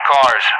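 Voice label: "cars", English; Target; DCS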